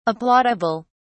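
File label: "applaudable", British English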